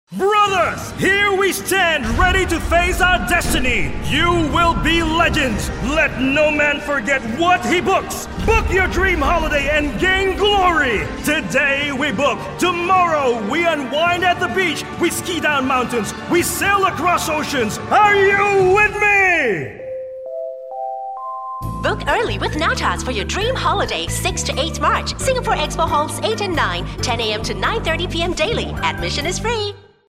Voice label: Asian English Radio Ad; NATAS

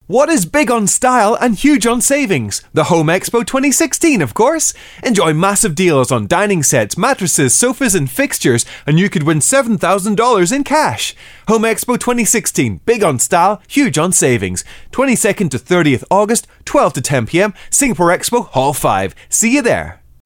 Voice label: Asian English Fun

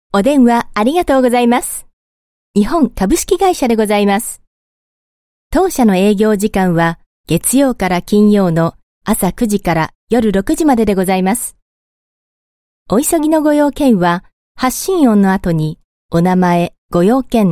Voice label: Japanese Female

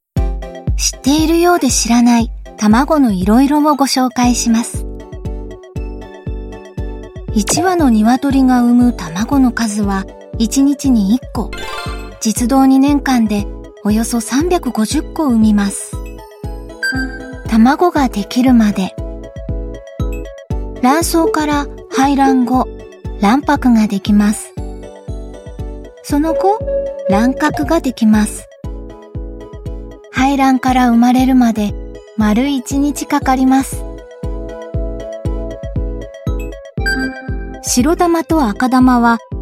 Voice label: Japanese, Japanese Female; Tamago

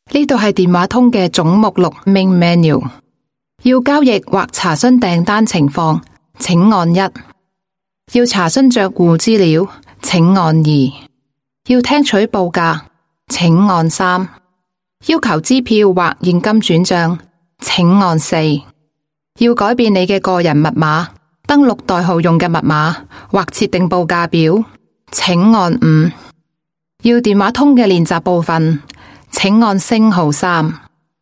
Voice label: Cantonese IVR Clean, Cantonese Female

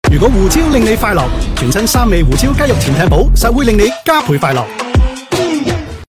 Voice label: Cantonese Subway; HKG

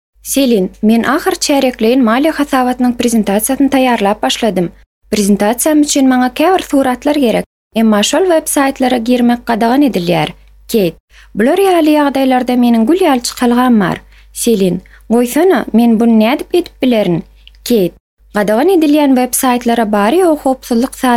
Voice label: Turkmen Female

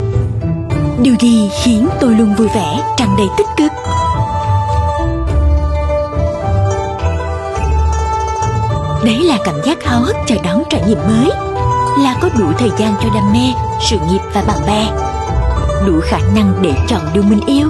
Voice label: South Female, Vietnamese; Crystal Jade VietNam

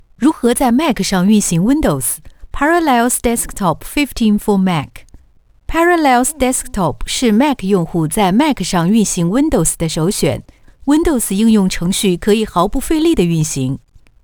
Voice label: Mandarin China; Parallels Desktop Hero

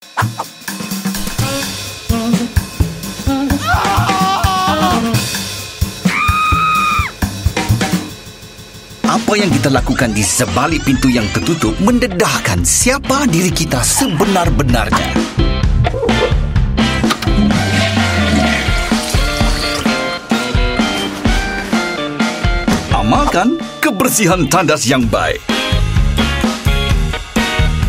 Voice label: Malay; Loo Guru is Back

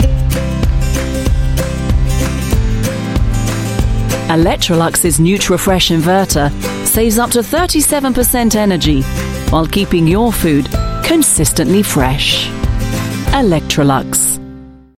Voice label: UK English, Female; Electrolux Nutri-fresh Inverter

